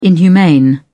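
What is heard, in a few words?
"inhumane", British English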